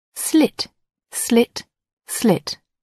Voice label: "slit", English

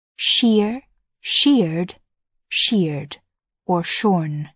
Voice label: "shear", English

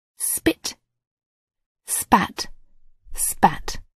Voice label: "spit", English

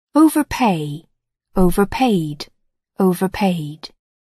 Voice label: "overpay", English

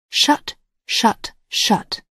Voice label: "shut", English

